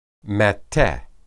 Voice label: "matteh", Hebrew